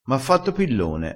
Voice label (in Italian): "fa pillone", crespinese